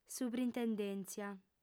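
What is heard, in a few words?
"subrintendèntzia", Sardinian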